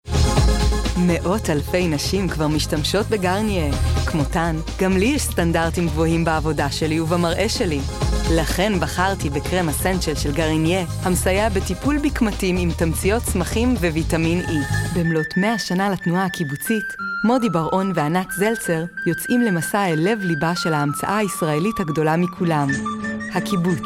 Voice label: Hebrew